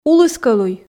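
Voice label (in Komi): "улыс кӧлуй", Komi, female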